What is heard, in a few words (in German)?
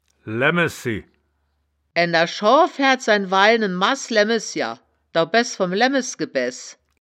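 "laemmesji", wittlicher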